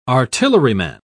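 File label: "artilleryman", English, British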